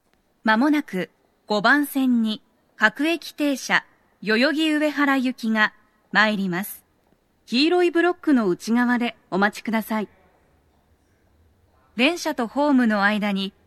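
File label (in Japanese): 5番線 接近放送 女声, 大手町駅; 放送は「各駅停車 代々木上原行」です。; 代々木上原・唐木田・伊勢原方面; 鳴動は、やや遅めです。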